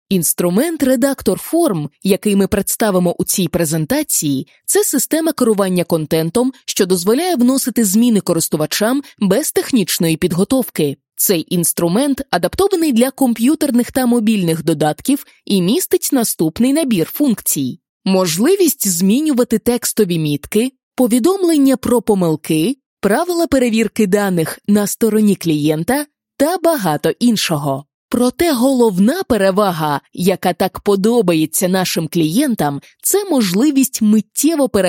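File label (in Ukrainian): Ukrainian, Corporate; GoLocalise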